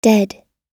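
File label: "dead", English; oxford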